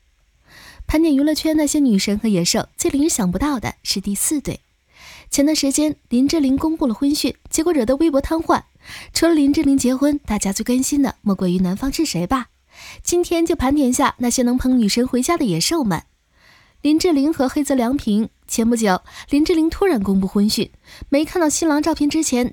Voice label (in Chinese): 娱乐每日播报